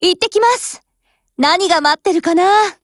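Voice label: Glock17 OPERATION BEGIN Japanese; GF Alarm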